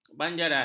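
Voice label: "banjaran", Malay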